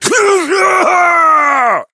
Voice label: pain laser; Operative

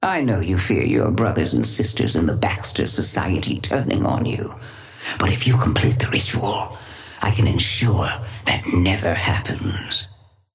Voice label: female; Patron; shiv

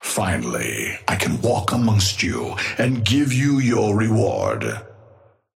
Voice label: male match win

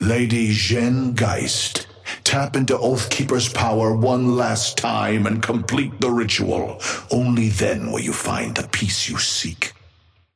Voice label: male ally start; Patron; ghost

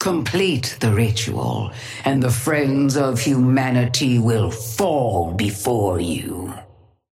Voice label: female ally hornet start

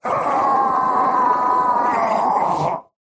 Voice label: pain laser; Slork; akira

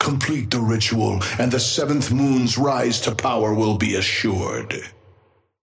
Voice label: male ally start; Patron; yamato

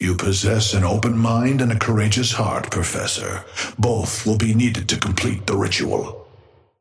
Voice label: male ally start; Patron; dynamo

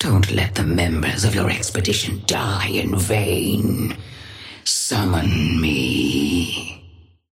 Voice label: Patron female ally; kelvin

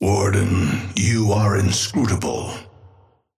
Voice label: male ally start; Patron; warden

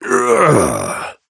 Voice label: pain low health warning; Operative

